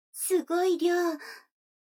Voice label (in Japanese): 女の子台詞, ASMR